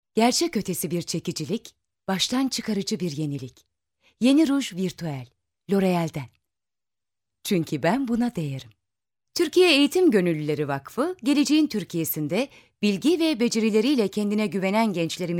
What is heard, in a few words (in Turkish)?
KADIN